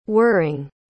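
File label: "whirring", English pronunciation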